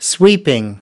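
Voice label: "sweeping", English word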